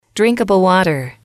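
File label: drinkable Water, english, female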